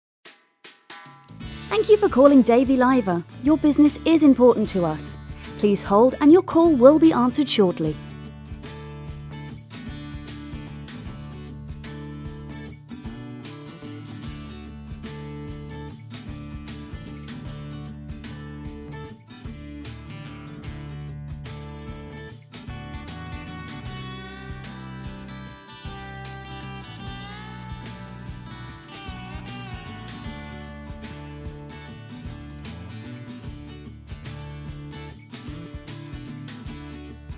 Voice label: Female English